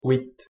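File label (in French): "huit" French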